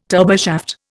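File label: "turboshaft", Indian English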